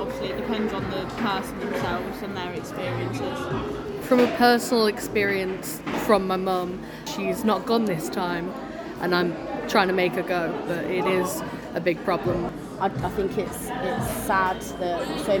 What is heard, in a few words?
Vox Pop, students discuss cervical cancer; Cervical-Cancer-Vox-Pop